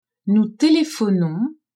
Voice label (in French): "nous telephonons", french; telephoner